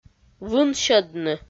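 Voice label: "вынсьӧдны", Komi, female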